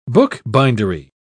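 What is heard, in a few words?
"bookbindery", British English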